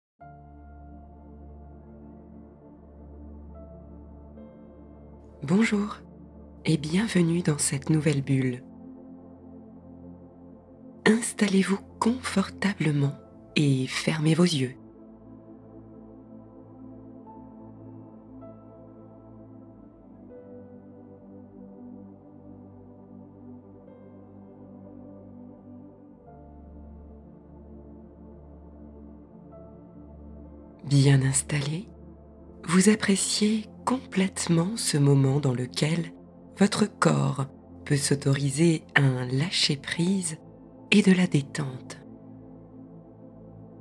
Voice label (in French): Pause meditative; Bulle de legerete